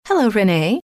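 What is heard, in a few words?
hello, female; trainer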